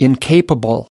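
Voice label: "incapable", US English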